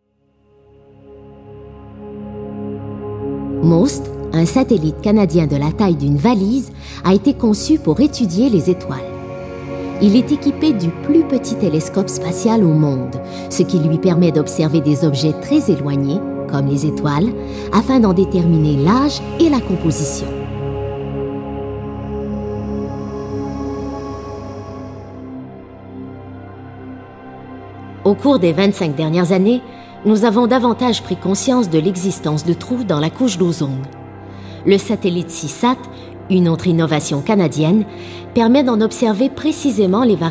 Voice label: Corpo FR